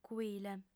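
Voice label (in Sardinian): "cuile", female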